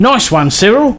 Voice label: Thanks, male; svencoop

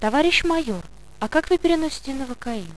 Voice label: monologue; svencoop; paranoia; medic

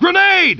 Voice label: "pineapple", speech; svencoop

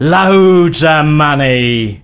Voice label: Drop Cash, voice commands, male; svencoop

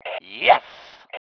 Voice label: "YES", male

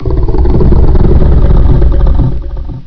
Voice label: disgusting; svencoop; sc dead zone4

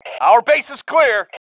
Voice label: base clear, MALE

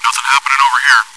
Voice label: chitchat; svencoop

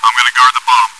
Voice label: chitchat, bot, voice commands; svencoop

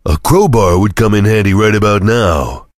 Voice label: chitchat; svencoop; voice commands; Duke

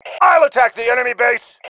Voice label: attacking base, male

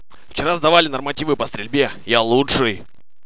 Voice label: monologue, soldier; svencoop; paranoia

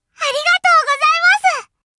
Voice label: thanks, Anime Loli, voice commands; svencoop

